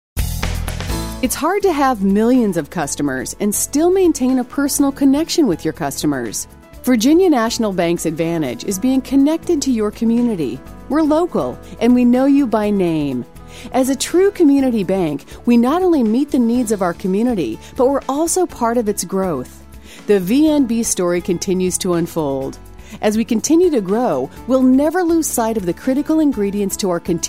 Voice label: On Hold Message; Virginia National Bank